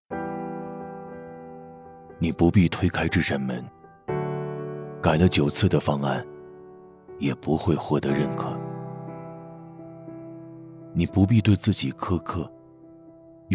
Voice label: 旁白; 你知道的事

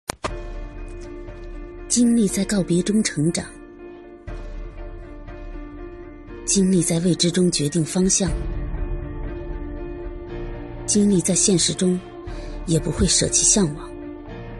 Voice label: 广告 配音

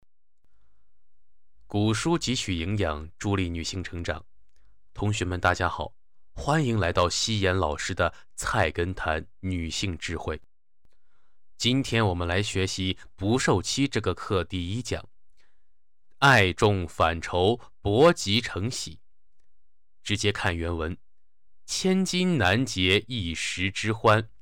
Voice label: 短视频 解说

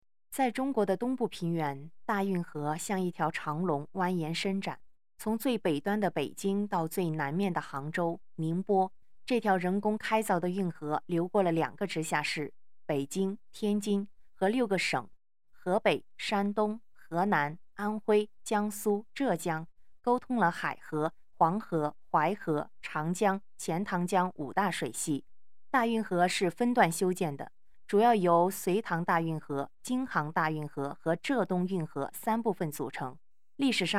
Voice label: 小学课件

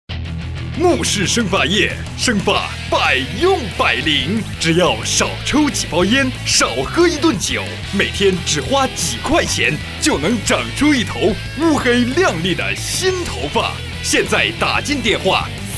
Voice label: 激情促销, 促销广告 中年（36-54）

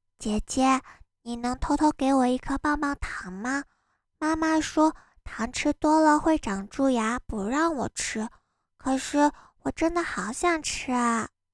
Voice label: 萝莉

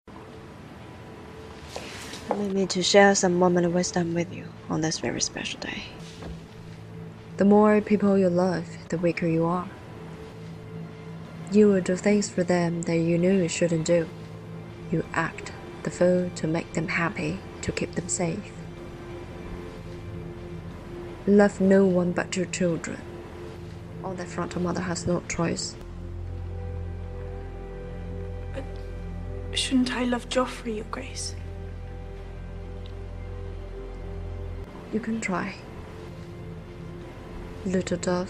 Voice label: 女王平静指导沉稳; 电视剧权力的游戏第二季

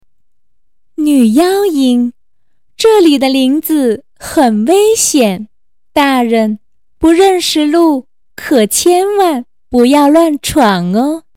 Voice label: 女妖音

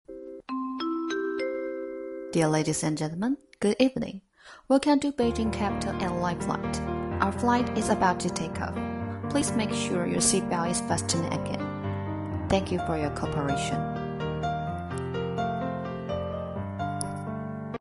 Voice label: 英语 乘机 播报

